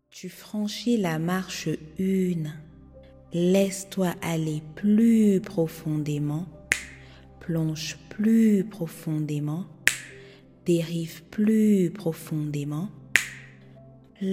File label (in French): Accueillir l'Amour de Soi Hypnose pour Guerir le Coeur